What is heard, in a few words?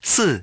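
"four", English; iOS 4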